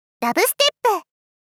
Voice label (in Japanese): ダブステップ！ 少女×中音域